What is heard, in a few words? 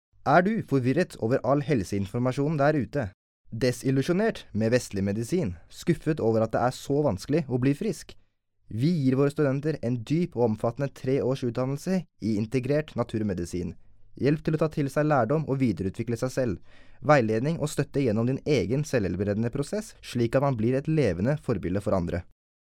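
Norwegian, male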